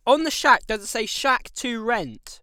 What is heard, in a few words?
14 year old boy